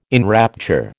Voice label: "enrapture", American